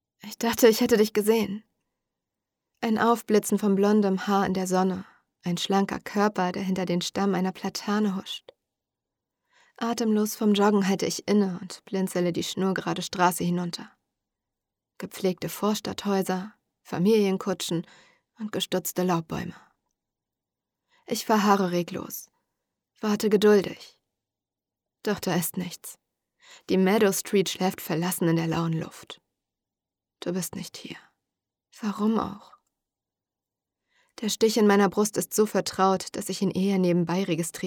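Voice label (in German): Horprobe Romance New Adult, Hörbücher; Sprecherin für Hörbuch & Voice Over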